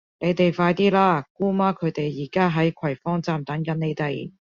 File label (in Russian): Голоса Гонконгский; commonvoice